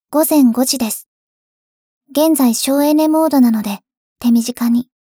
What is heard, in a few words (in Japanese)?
時報ボイス 電脳少女; ボイスコレクション